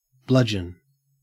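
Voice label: "bludgeon", English, Australian accent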